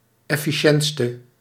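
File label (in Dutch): "efficiëntste", Dutch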